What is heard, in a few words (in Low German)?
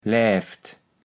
"lävt", kaevels platt